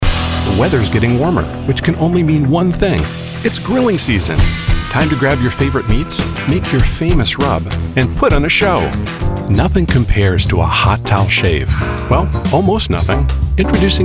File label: Commercial